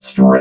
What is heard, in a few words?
"strip", robot